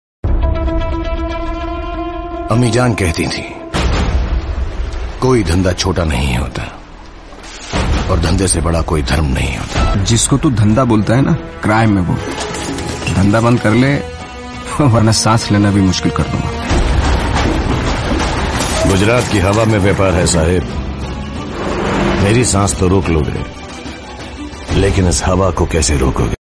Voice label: Dialogue Ringtone; Sharukhan; Ammi Jaan